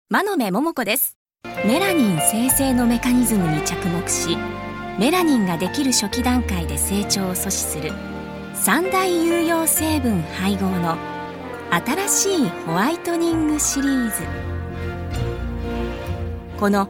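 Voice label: female tv infomercial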